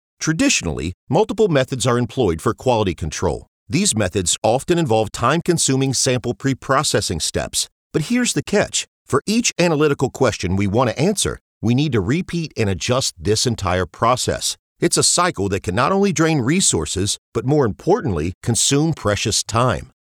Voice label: Conversational male voice, Television Spots, Friendly Voice With A Southwest Texas Feel; Friendly Voice With A Southwest Texas Feel, Perfect For Social Media Ad; Medical short 20sec